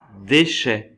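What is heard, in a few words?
"déchets", French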